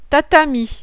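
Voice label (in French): "TATAMI", French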